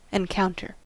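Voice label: "encounter", US English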